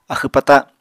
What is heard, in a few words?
"axw-pata", apatani